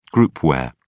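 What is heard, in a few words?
"groupware", British English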